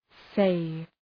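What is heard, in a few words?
"save", English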